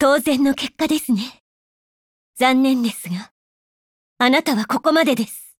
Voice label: Victory JP; Peritya

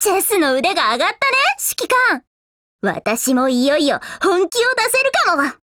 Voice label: DIALOGUE JP

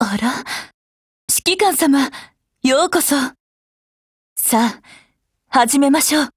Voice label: HELLO JP; Intruder

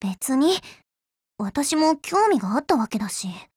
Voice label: FEED JP

